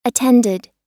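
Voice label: "attended" US English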